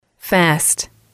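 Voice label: "fast", female, english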